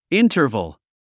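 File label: "interval", English; 発音 íntərvəl インタァバル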